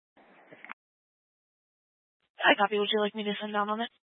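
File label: Dispatch, Scanner audio recording; Troop 3A Dispatch (W. Weld, N. Boulder)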